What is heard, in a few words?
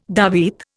"davit", Indian